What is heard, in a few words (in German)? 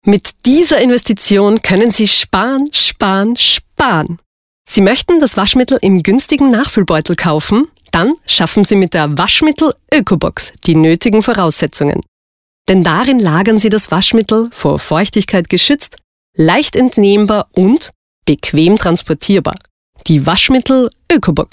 Werbung